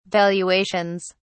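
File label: "valuations", British English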